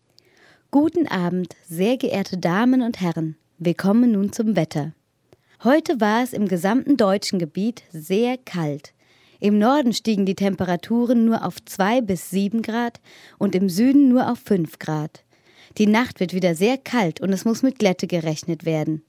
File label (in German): Wetteransage